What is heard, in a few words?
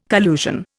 "collusion", Indian